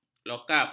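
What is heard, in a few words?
"lokap", Malay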